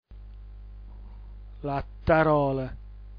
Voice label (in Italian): attarol, dialetto giulianova